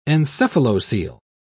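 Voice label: "encephalocele", English, British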